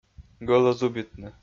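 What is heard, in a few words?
"гӧлӧзубитны", Komi, male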